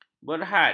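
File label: "berhad", Malay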